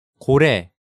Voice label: "gorae", Korean